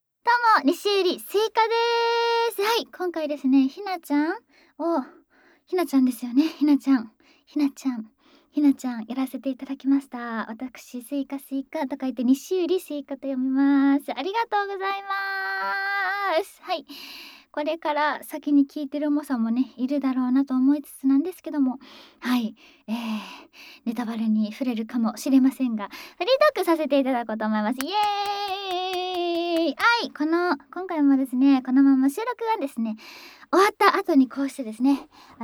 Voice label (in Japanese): フリートーク; スクールカースト上位の生意気JKを催眠分からせ調教～彼氏大好きヒナちゃん♪大事な処女をスマホ催眠で敗北献上→求愛メロメロおま◯こで中出し懇願アヘ絶頂♪～